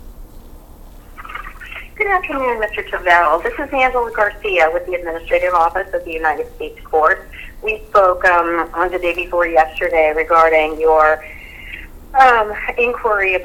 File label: Phone Call